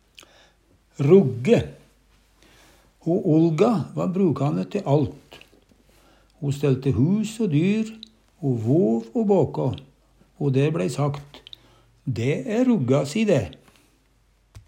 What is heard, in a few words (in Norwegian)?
"rugge", Numedalsmål